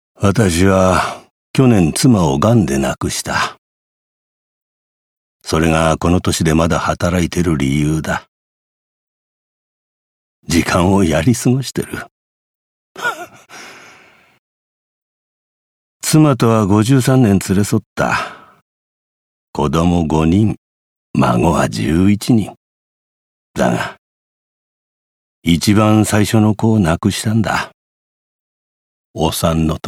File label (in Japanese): 老人